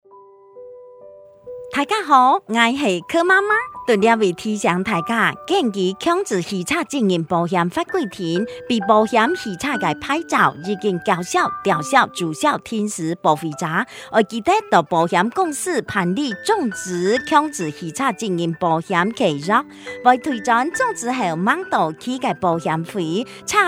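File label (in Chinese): 客語; 11月30日起，微型電動二輪車新車須投保強制險才能上路，現行已領有合格標章行駛上路的微型電動二輪車，應該在施行後2年內投保強制險。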